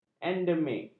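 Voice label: "endemik", Malay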